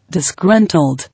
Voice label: "Disgruntled" English